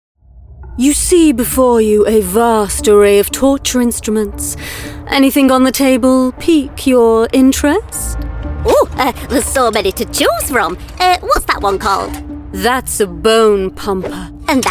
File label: animation reel, voiceover; Animation Showreel